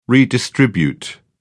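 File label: "redistribute", English, British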